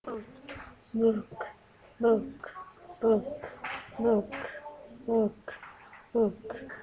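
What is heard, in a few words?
"book", spoken word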